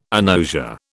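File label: "agnosia", British English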